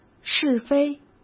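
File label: "是非", Mandarin Chinese; chinese-flashcards; hsk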